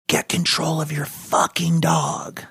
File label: whispering